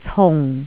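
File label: "cung4", Cantonese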